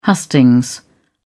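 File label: "hustings", British English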